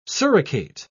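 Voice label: "suricate", British English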